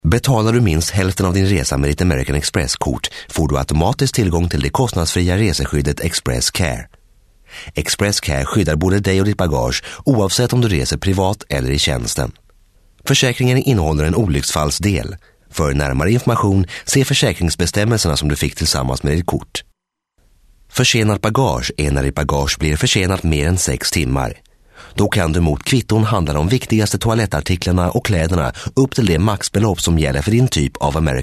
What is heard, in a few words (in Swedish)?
Swedish IVR